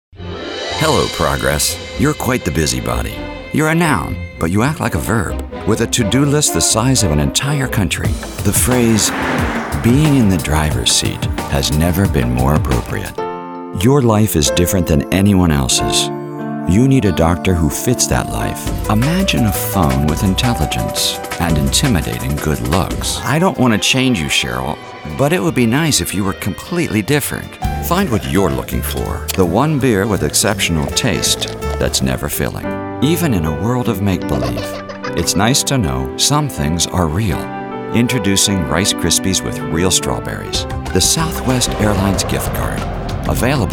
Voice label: Commercial